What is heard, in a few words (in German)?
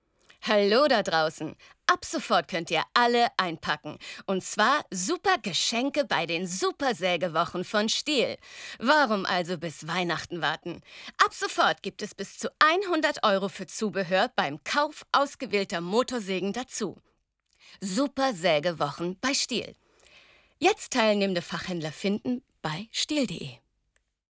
WERBUNG hart